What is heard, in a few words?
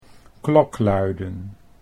"klokluiden", Dutch